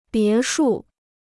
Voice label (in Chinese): "别墅", Chinese